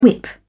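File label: "whip", British English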